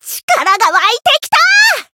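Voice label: healed, Japanese; myrus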